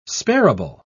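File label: "sparable", English, British English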